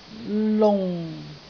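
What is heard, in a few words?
"lung4", Cantonese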